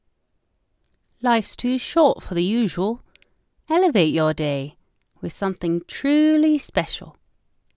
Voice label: English Casual